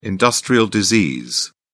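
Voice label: "industrial disease", British English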